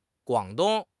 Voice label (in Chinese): "Guang3 dong1", 汉语口语; 汉语口语新时代-初级下